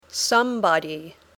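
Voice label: "somebody", English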